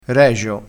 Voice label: "regio", vocabolario dialettale

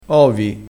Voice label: "ovi", crespinese

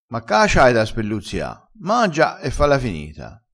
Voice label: "spelluzzia", vocabolario dialettale

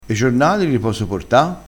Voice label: "levassi da tutti i", dialettale crespinese

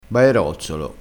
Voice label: "baerozzolo", crespinese

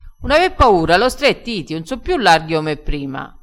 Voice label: "stretti", dialettale, crespinese

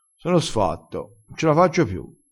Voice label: "sfatto", dialettale

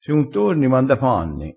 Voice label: "manda panni", crespinese

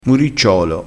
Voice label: "muricciolo", crespinese

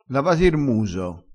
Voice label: "muso", dialettale crespinese

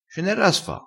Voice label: "a sfa", Crespinese

